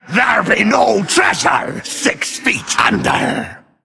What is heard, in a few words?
Male Skeleton Play